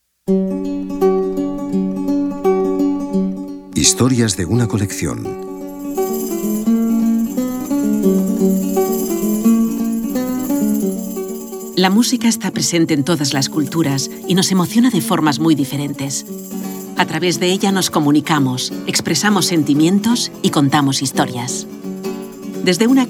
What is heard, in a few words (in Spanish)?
audioguia; delamusica espanyol